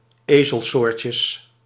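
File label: "ezelsoortjes", Dutch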